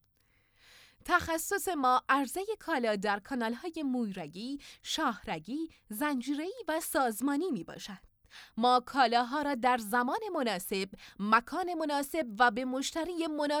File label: commercial